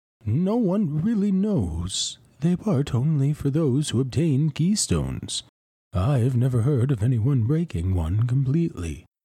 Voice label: Wise and articulate human wizard with scholarly gravitas and arcane authority, Audiobook Hero; Aethelwine